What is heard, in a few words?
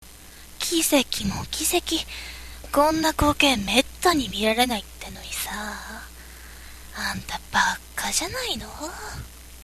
girl